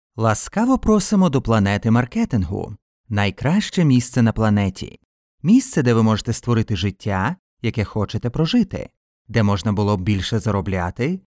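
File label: Ukrainian, male, Corporate promo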